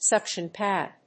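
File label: "suction pad", English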